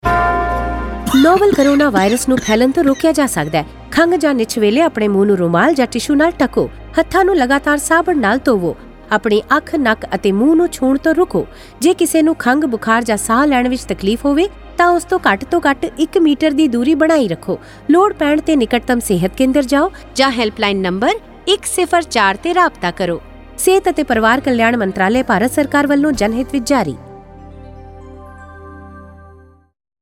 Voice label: Cough Radio Punjabi, Radio spot on key behaviours COVID-19; SARS-CoV-2; UNICEF IEC eWarehouse